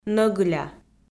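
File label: "нӧгыля", Komi, female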